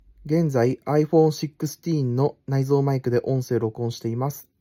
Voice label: iphone16 voice; truefree hf 10